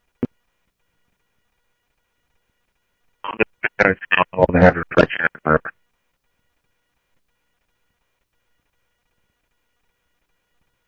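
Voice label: Mesa Police Department, scanner audio; Mesa Simulcast; MESA PD Superstn MesaPoliceDep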